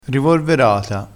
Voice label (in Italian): "rivorverata", crespinese, vocabolario dialettale